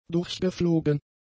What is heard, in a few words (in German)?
"durchgeflogen", German; adjectives